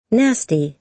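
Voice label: "nasty", American English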